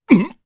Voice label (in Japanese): Damage, jp; Ricardo; kingsraid; voices heroes